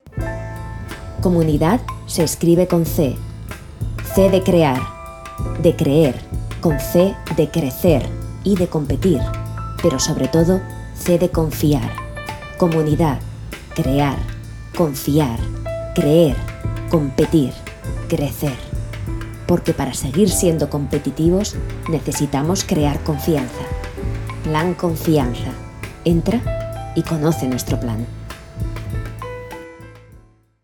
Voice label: female spanish castilian corporate explainer; confianza